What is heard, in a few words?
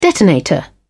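"detonator", British English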